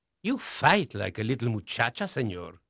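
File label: Spanish male, voice; Pirates Of The Caribbean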